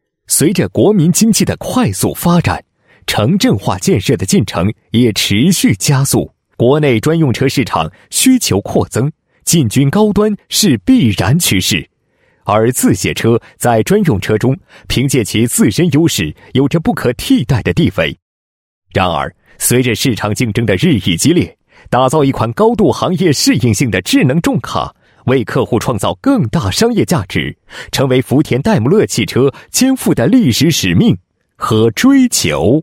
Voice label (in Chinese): 专题 产品 牵引车介绍 年轻, 男; 欧曼GTL超能版牵引车介绍